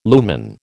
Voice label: "lumen", American